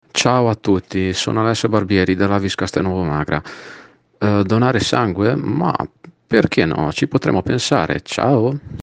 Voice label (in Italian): promo